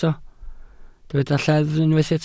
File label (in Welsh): "lleddf", Welsh